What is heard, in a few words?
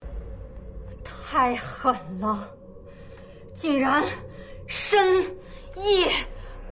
drama